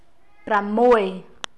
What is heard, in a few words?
khmer six